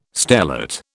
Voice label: "stellate", British